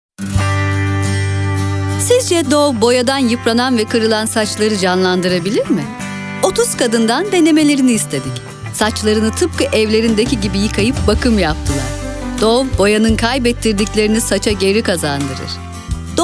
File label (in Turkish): enerjik tempolu, seslendirme